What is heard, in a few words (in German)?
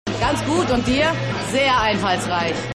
Frau; Rescue Me